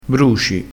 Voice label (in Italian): "bruci", crespinese